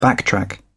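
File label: "backtrack", British English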